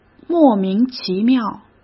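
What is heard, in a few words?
"莫名其妙", Mandarin Chinese; chinese flashcards; hsk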